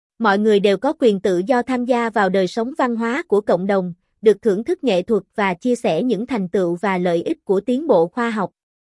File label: AI Female Vietnam